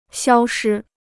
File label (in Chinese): "消失", Chinese